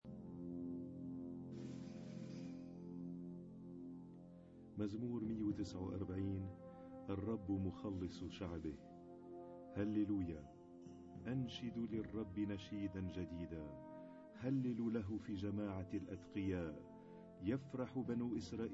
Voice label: Arabic Psalm Zaboor